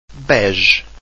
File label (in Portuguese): "bege", Portuguese